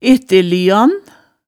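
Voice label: "ettelyan", Hemsedal dialect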